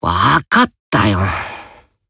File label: Emo Reply